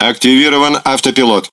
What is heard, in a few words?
Ru Speech Autopilot Enabled; World Of Warships